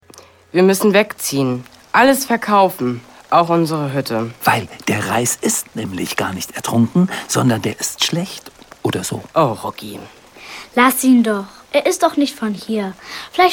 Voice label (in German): Hoerspiel